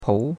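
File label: "pou2" Mandarin Chinese syllable, second tone; Cours de Chinois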